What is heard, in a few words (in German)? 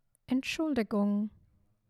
"Entschuldigung", German